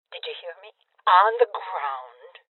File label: Order To Surrender Female Old; Voices OrderToSurrender FemaleOld